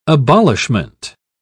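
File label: "abolishment", British English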